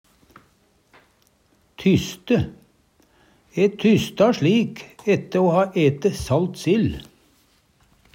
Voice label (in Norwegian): tyste, Numedalsmål; Verb; tysta